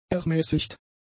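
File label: "ermäßigt", German; adjectives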